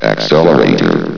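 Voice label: "accelerator", male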